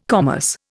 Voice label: "commerce", Indian